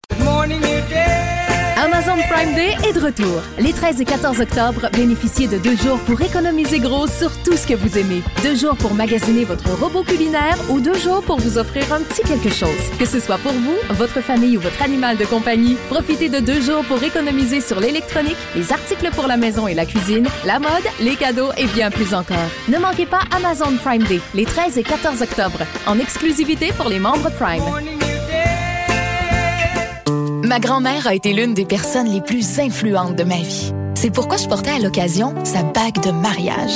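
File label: French Canadian, commercial; GoLocalise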